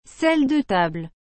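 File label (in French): "sel de table", French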